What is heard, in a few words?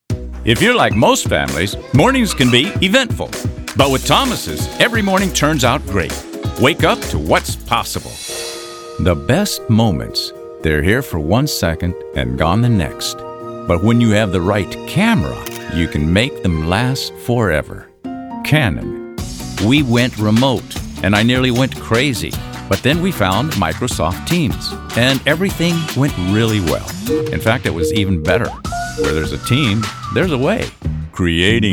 Commercial